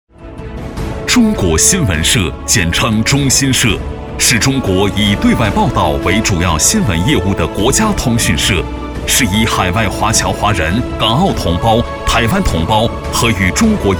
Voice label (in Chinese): 男 专题; 中国新闻社